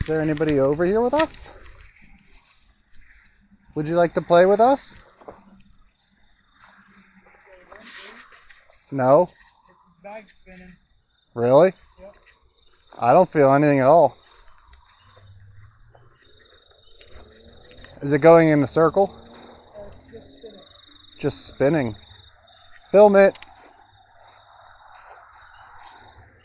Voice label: Swingset EVP